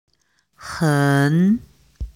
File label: chinese pronunciation en eng